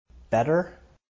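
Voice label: "better", English, North American Standard US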